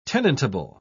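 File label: "tenantable", British English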